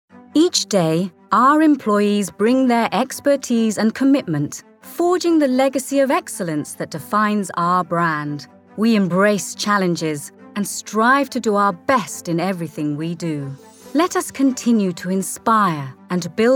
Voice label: Narration CORPORATE